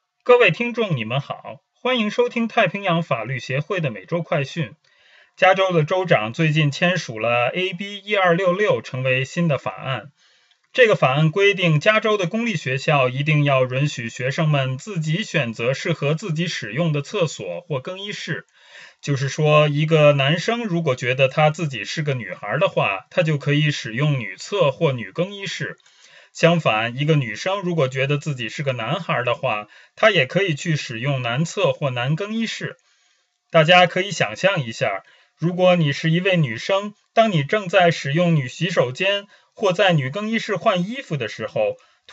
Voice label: mandarin